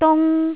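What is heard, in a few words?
"dung1", Jyutping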